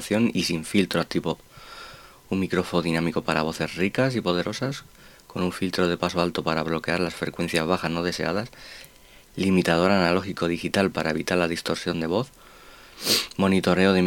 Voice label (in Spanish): PRUEBA DE GRABACION DE VOZ RAZER SEIREN V2 PRO